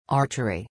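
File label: "archery", English pronunciation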